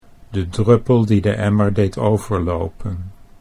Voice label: de druppel die de emmer, Dutch; sayings

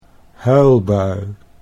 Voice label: "huilbui", Dutch

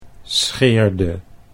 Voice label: "scheerde", Dutch

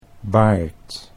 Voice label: "baard", Dutch

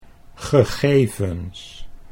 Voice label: "gegevens", Dutch

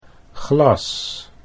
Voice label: "glas", Dutch

